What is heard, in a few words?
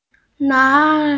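"lai2", Mandarin Chinese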